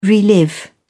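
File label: "relive", British English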